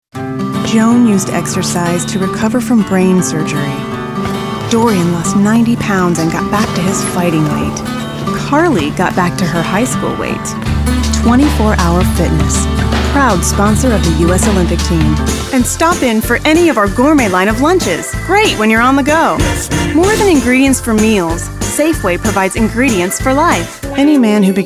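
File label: us commercial, American, female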